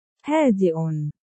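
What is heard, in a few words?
"quiet", dog commands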